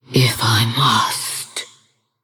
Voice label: Female Banshee Attack